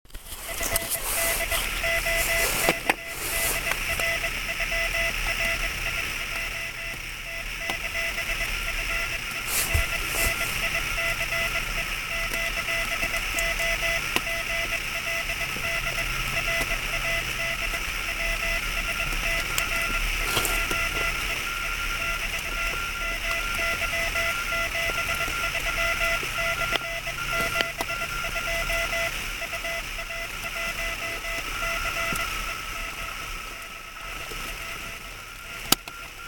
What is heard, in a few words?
QSO